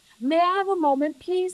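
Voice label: voice acting, es, female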